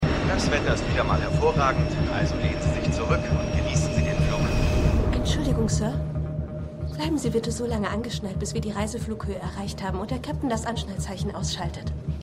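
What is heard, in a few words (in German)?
Flugkapitän Flugbegleiterin; The Flash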